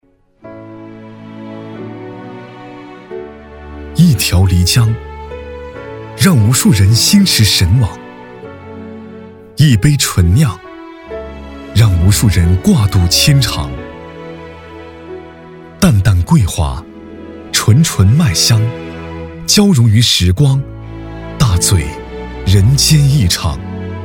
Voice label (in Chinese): 大气讲述; 大气讲述一条漓江二